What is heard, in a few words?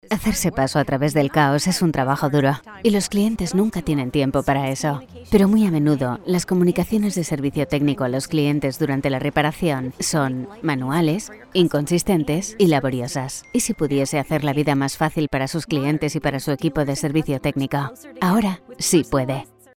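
Spanish, European, female, CORPORATIVO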